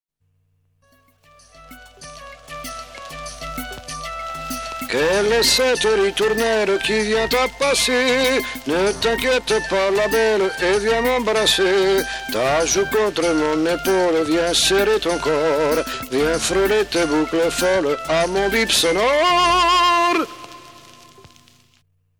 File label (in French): Message Vocal